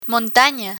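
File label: "montana" Spanish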